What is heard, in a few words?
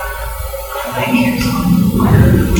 evp i m 8 years old